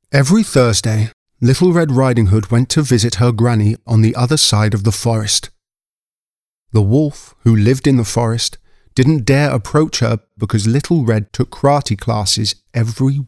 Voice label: Kids Book Read